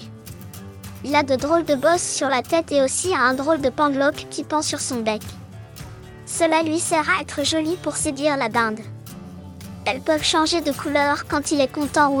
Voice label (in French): dindon audio enfant, explication; ranch du moulin st martin